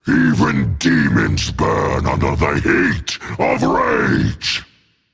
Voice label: taunt rage; chaac; bakasura

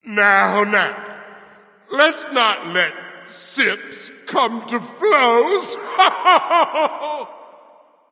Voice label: taunt tipsy; bacchus

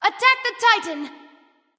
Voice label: vox attack m; smite; khepri

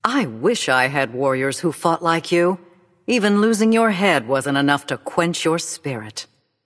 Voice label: taunt directed; smite; hera; xingtian

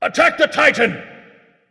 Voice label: vox attack m; smite

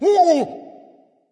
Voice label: fear dragon; fafnir

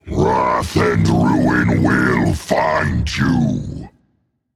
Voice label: taunt directed rage; chaac; nemesis

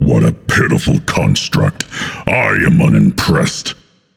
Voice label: taunt directed; hera; Smite; atlas

